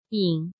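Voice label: "Yǐng", Chinese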